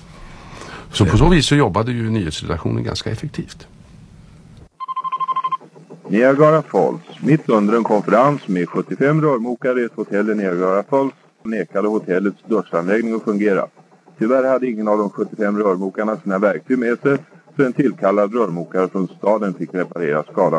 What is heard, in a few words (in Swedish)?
nyheter radio nord